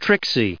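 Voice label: "tricksy", English, us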